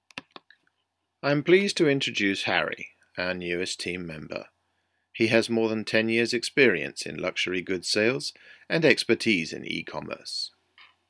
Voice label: toast speech